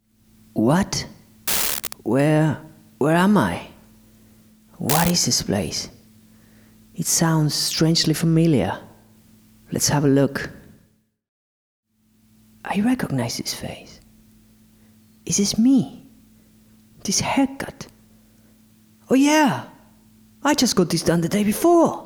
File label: Voiceover